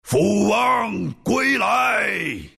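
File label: respawn, chinese; dota2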